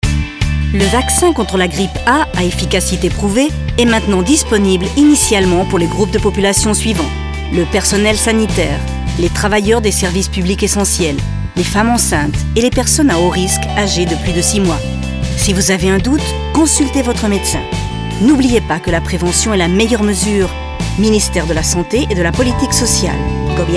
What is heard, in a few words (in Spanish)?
FRANCES